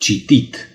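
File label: "read", Romanian; gcompris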